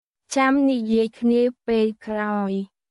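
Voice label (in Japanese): クメール語（カンボジア語）の日常会話基本フレーズ; クメール語は、カンボジア王国の公用語で、独特のやわらかい響きが特徴です。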